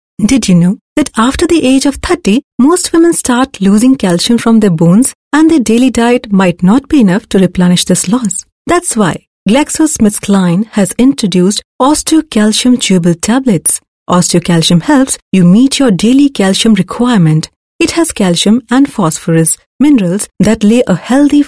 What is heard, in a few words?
eng sample, female voice